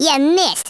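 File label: "missed" spoken, Redneck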